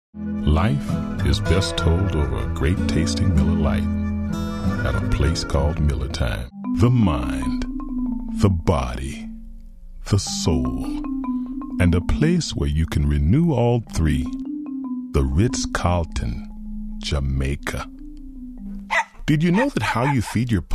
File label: male voice